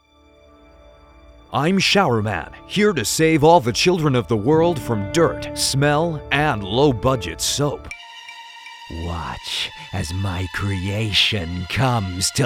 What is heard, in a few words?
Cartoon US